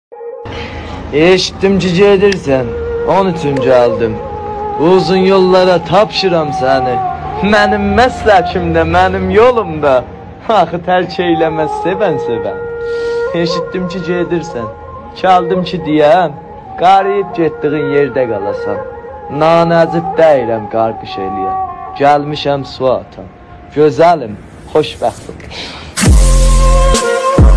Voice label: دکلمه ترکی